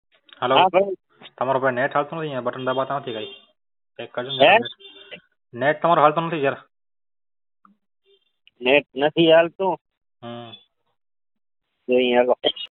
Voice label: Call recording